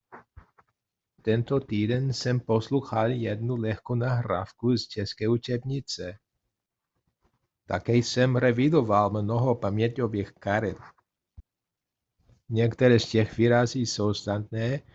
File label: czech exercise; tento tyden